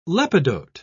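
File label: "lepidote", British English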